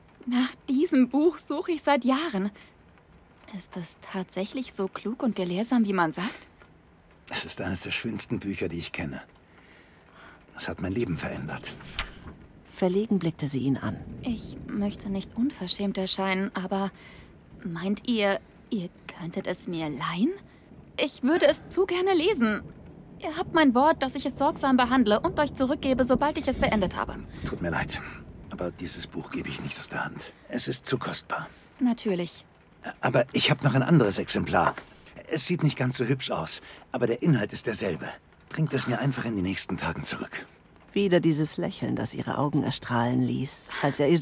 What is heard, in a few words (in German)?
Hoerspiel; Remy